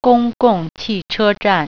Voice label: "bus station", Mandarin

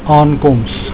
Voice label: "Aankoms", Afrikaans; Arrival

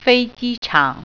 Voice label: airport, mandarin

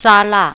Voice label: "salad", Mandarin

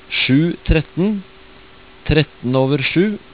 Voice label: "tre", Norwegian

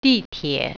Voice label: subway, mandarin

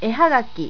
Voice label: "ehagaki", japanese

